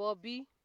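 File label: "bobi", Nigerian Pidgin, female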